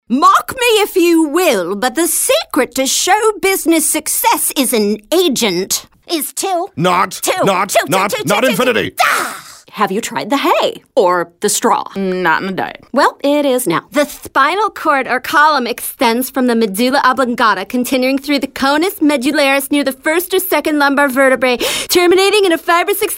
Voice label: Compressed Cartoon